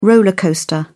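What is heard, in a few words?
"roller coaster", English, British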